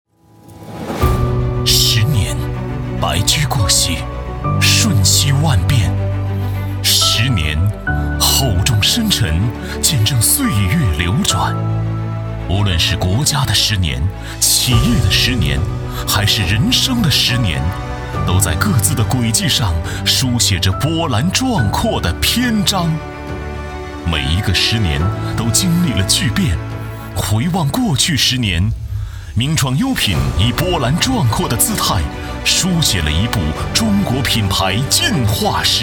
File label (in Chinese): 男 形象宣传; 走心企业形象片样音; 名创优品